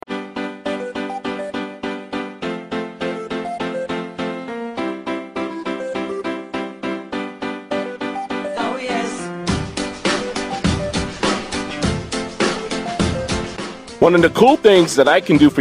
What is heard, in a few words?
voiceover music corporate